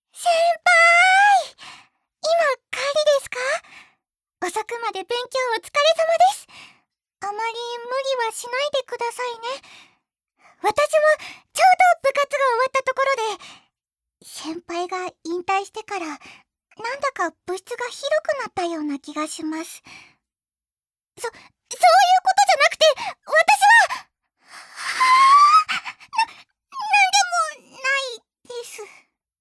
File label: Junior Student, 健気な後輩